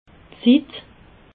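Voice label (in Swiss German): "zitt", Alsatian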